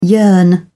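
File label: "yearn", British English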